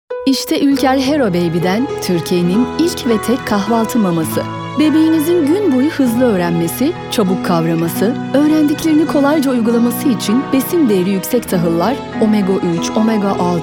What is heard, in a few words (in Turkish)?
sicak samimi